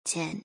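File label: "ten", English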